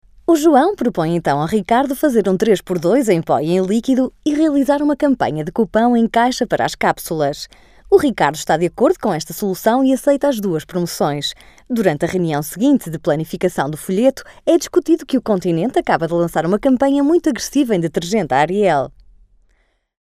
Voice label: locutora portuguesa portuguese voiceover; Portuguese voice talent

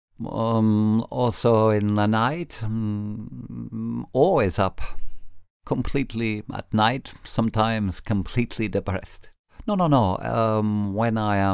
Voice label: imitation english voiceover; imitación de inglés con acento catalán

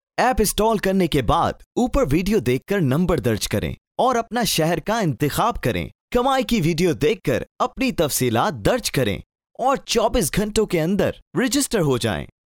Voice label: urdu voiceover locutor urdu; India

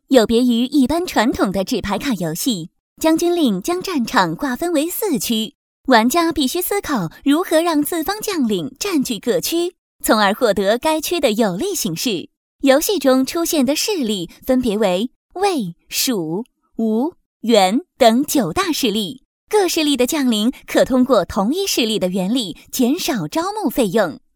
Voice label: locutora china mandarin chinese voiceover

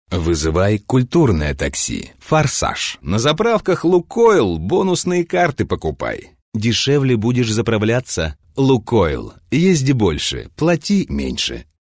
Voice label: locutor ruso russian voiceover

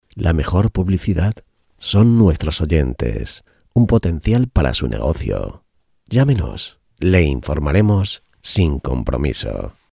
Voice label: spanish locutor voiceover publicidad; Locución de promoción de televisión para captar nuevos anunciantes. Sin música de fondo.

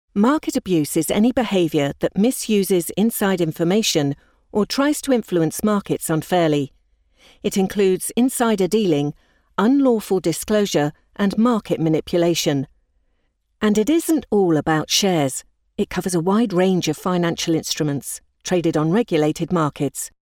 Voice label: locutora inglesa english voiceover

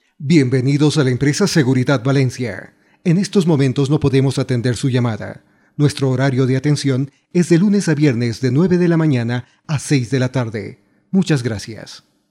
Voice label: locutor ecuador ecuadorian voiceover; locutor de Ecuador

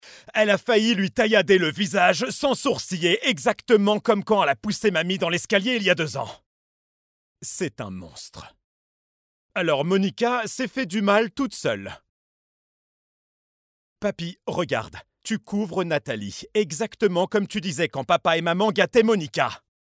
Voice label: locutor frances french voiceover

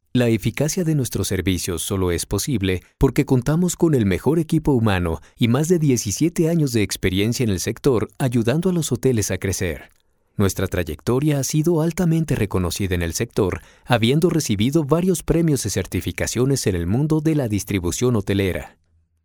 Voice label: mexican voiceover locutor mexicano; locutor de México

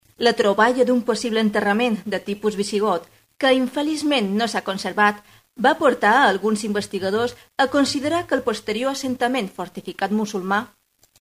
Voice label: locutora catalan mallorca catalan voiceover; locutores de doblaje

